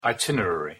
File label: pronunciation en itinerary